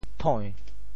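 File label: "thoi~2", Teochew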